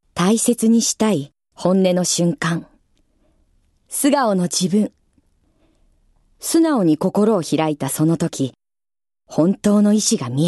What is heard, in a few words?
female voice; ナレーション